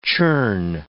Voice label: "churn", English

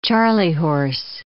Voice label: "charley horse", English

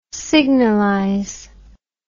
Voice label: "signalise", words

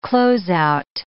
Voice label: "close out", English

